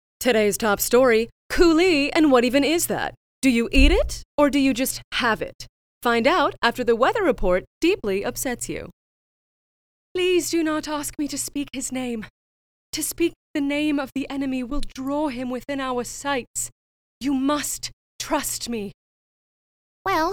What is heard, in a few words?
Animation